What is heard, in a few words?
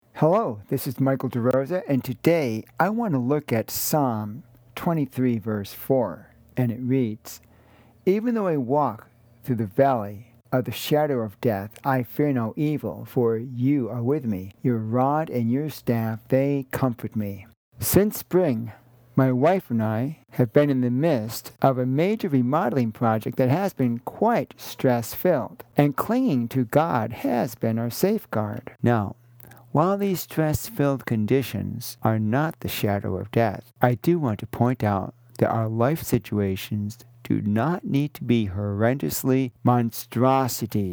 Radio Sermonette; Psalm 23 4; Chaos Confusion and Christ